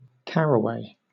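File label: "caraway" English, British accent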